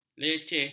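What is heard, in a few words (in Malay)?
"leceh", Malay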